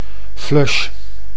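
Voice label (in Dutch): "flush", Dutch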